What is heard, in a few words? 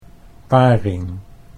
"paring", Dutch